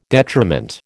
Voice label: "detriment", American